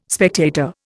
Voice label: "spectator", Indian